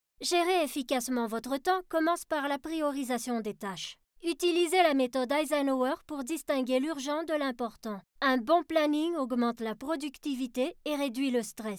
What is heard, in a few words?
E-Learning